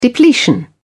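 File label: "depletion", English, British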